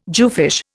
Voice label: "jewfish", Indian